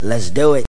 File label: lets do it, male spoken word